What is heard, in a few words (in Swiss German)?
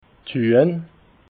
"huehn", alsace